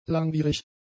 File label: "langwierig", German; adjectives